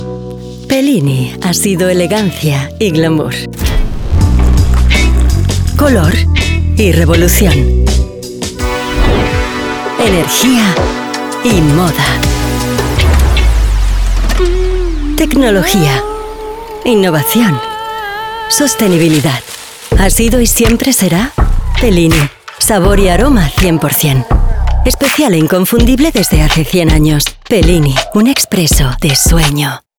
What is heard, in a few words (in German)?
Werbung Spanisch